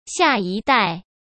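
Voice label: "下一代", Mandarin Chinese; chinese-flashcards; hsk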